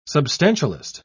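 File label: "substantialist", British English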